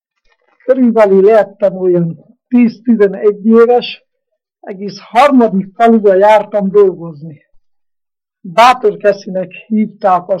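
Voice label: dialect collection; nyelvjaras gyujtesek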